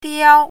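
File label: "diao1", Mandarin Chinese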